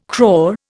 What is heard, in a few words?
"crore", Indian